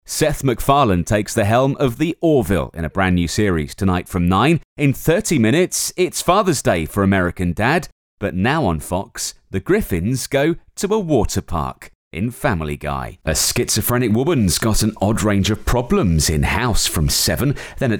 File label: Continuity Demo